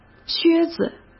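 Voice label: "靴子", Mandarin Chinese; chinese flashcards; hsk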